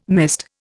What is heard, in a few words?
"mist", Indian